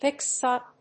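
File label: "picks-up", English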